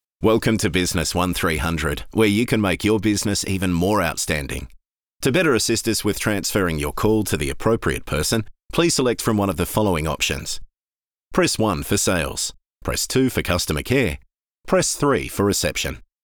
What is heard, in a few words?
NATURAL